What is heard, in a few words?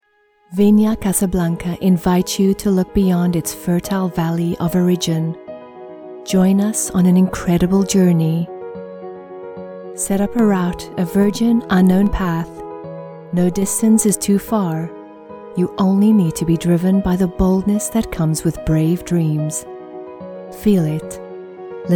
english south african promos; Synchronsprecher aus Südafrika